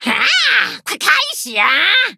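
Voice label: battle, Traditional Chinese; cookie run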